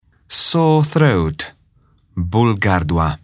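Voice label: "sore throat", English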